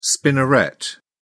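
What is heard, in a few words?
"spinneret", British English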